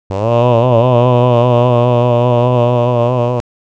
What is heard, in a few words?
16k white 20dB